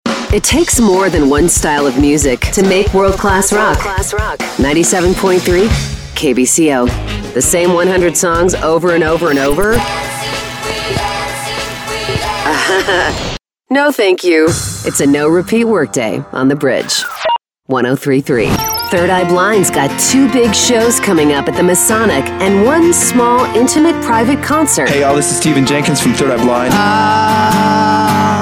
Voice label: AAA Imaging